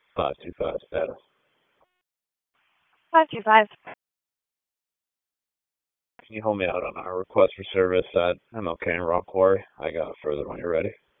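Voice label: Police District Dispatch, p25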